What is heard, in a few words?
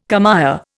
"commÊre", Indian